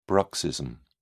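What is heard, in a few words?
"bruxism", English, British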